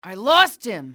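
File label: "lost him", english, female; XBOX; enemy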